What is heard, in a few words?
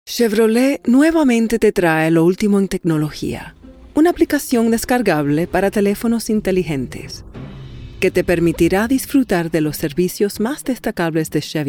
SPANISH Commercial Reel